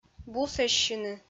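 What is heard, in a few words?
"бусӧссьыны", Komi, female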